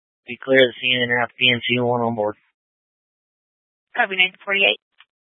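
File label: County Fire, Scanner audio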